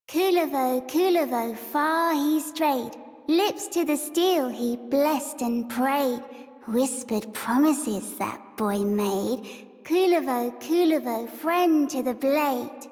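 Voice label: Children's Rhymes Child Female; Warframe; Duviri; Kullervo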